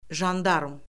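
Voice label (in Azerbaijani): "jandarm", Azerbaijani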